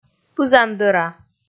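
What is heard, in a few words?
"пызандӧра", Komi, female; kpv audio dict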